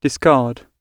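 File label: "discard", British English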